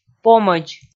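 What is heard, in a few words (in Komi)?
"помӧдз", Komi, female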